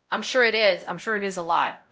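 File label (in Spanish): Voice Acting, es, female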